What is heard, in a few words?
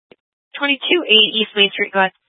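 Incident Tac 3, Scanner audio recording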